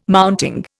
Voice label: "mounting", Indian

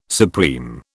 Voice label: "supreme", British

